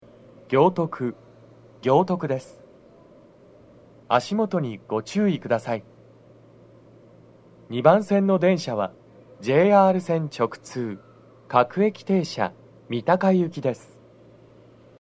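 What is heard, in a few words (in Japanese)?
到着放送, 男声, 放送は「各駅停車 三鷹行」です。TOSHIBAでの収録です。; 行徳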